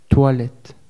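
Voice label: "toilettes", French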